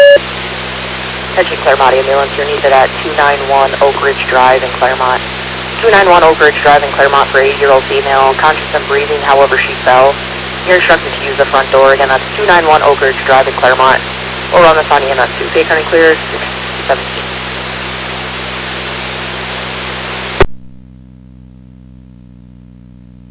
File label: Fire, dispatch; Clermont